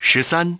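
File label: "ten three", English; iOS 4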